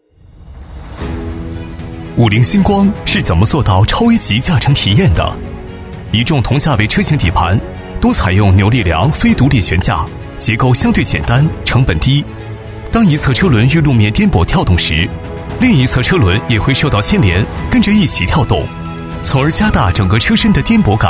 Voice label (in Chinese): 男 汽车广告 旁白, 厚重有力 高端大气; 五菱星光